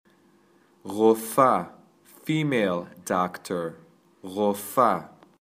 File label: Female Doctor